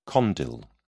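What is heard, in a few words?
"condyle", British English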